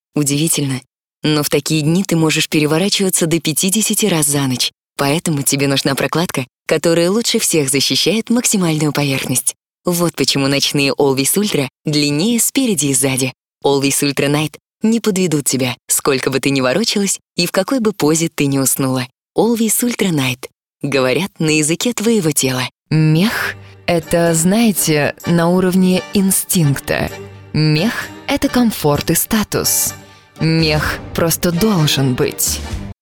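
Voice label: Russian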